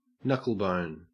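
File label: "knucklebone", Australian English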